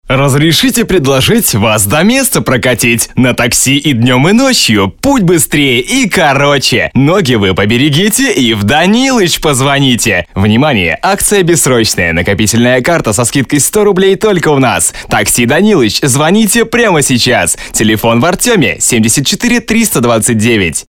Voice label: rus; male